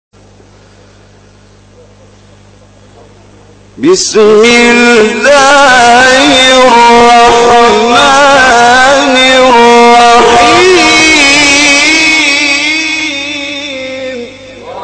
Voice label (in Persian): تلاوت زیبای سوره بلد